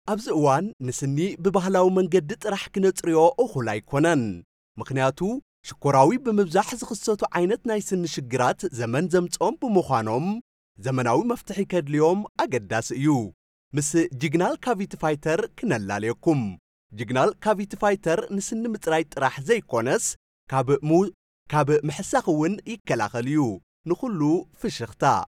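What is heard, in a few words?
Tigrinya, male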